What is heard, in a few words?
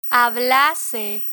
"hablase", Spanish